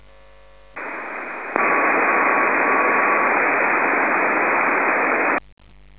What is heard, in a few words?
EME 15m ssb